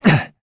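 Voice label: Vox Landing, Korean; heroes; Esker; kingsraid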